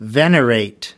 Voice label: "venerate", us

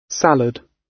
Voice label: "salad", gb

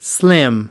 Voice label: "slim", us

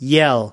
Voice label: "yell", us

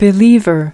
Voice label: "believer", us

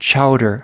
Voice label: "chowder", us

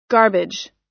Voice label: "garbage", us

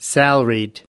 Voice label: "salaried", us

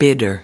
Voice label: "bidder", us

